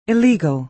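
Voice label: "illegal", American English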